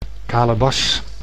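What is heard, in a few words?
"kalebas", Dutch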